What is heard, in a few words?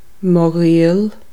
"my relative", Irish